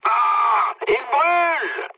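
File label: on fire, mood medium, French; War Thunder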